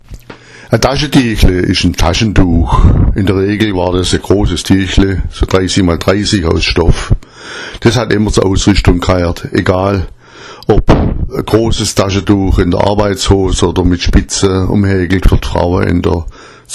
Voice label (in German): daschadiachle, schwaebisch